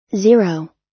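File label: "zero", US English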